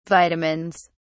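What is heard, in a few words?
"vitamins", British English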